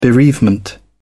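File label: "bereavement", English, British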